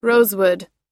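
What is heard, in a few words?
"rosewood", English pronunciation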